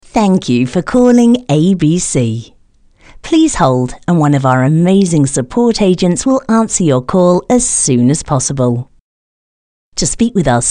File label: Female; pre-recorded message